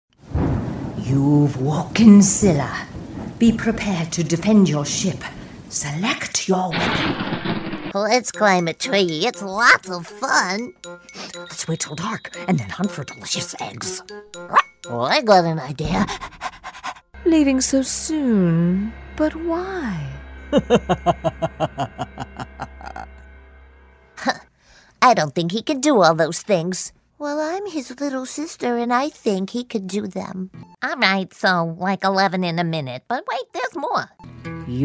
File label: english american animation